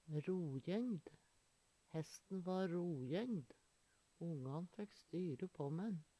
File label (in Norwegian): rojengd, Numedalsmål; Hesten va rojengd. Ongan fækk styre på mæ`n.; Adjektiv